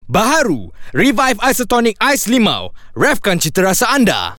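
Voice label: Malay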